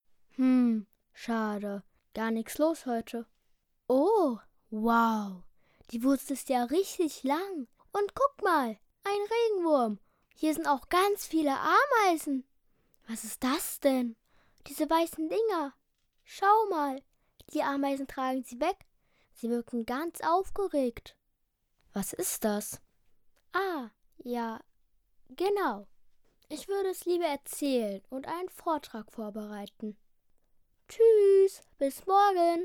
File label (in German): Rolle im Imagefilm